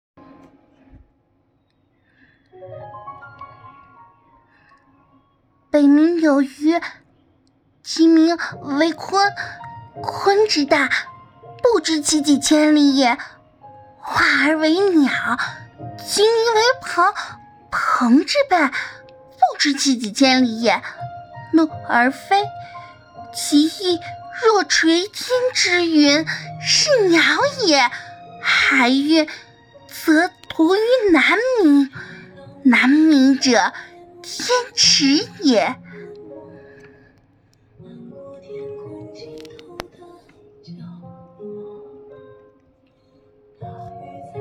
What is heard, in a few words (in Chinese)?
少年音